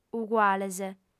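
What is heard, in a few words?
"uguales", Sardinian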